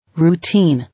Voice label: "Routine", English

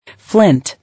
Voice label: "Flint", English

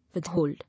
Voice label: "With-hold", English

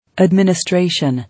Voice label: "Administration", English

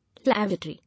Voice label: "Lavatory", English

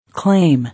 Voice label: "Claim", English

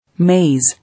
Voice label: "Maize", English

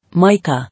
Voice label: "Mica", English

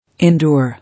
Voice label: "Indoor", English

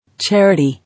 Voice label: "Charity", English

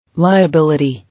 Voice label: "Liability", English

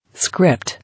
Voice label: "Script", English

